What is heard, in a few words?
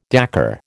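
"décor", American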